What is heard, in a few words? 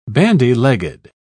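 "bandy-legged", British English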